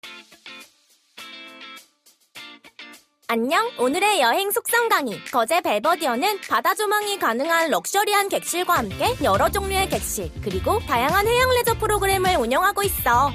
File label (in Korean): 10대 소년; 한화리조트